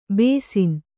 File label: "ಬೇಸಿನ್", Kannada